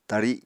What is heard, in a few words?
"tari", apatani